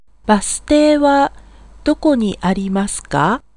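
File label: Japanese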